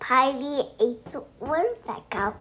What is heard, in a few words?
saying "Pileated Woodpecker", The day before his third birthday